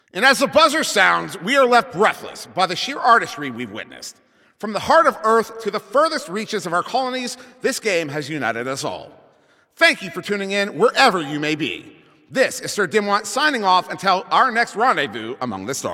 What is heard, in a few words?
Announcer Game Ends